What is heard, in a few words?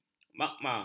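"magma", Malay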